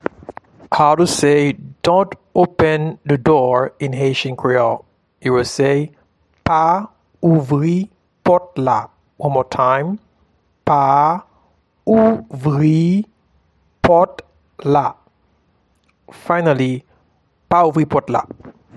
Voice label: Dont open the door in Haitian Creole, Pa ouvri pot la